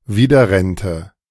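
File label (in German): "widerrennte", German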